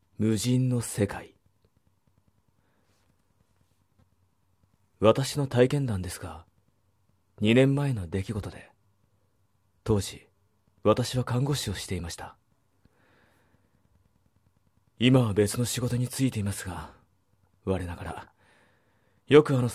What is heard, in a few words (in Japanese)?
乙女向け 同人声優 ASMR 怖い話; VOL６ 無人の世界